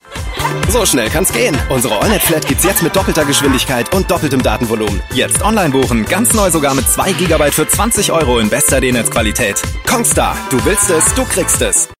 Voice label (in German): tv spot off; congstar; so schnell kann es gehen